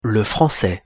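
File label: "le français", pronunciation, French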